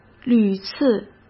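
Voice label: "屡次", Mandarin Chinese; chinese-flashcards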